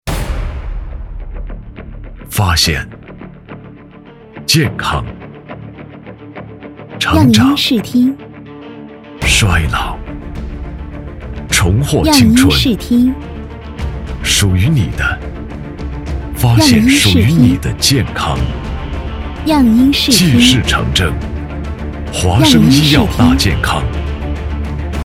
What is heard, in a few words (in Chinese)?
男 广告; 济世诚正